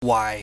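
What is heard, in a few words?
"waai", Cantonese, low level tone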